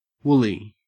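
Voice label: "woolly", Australian English; pronunciations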